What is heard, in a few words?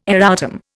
"erratum", Indian